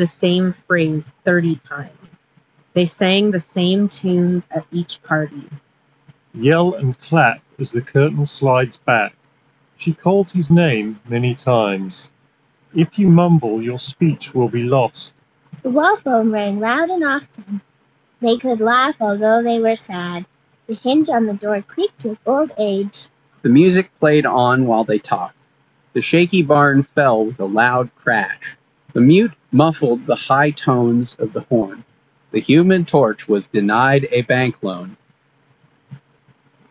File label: Sony WH XB910N Wind microphone sample